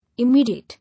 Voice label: "Immediate", English

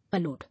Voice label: "Allude", English

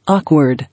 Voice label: "Awkward", English

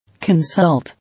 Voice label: "Consult", English

